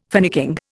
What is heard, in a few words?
"finicking", Indian